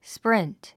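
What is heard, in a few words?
"sprint", English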